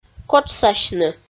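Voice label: "котшсасьны", Komi, female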